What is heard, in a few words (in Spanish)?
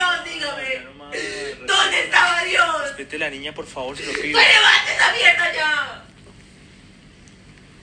gritando, donde estaba Dios